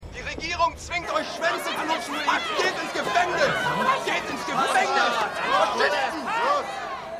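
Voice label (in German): Verrückter Demonstrant; The Good Fight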